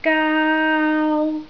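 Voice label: "gaau1", Cantonese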